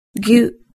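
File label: "gyu", Japanese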